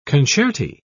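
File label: "concerti", British English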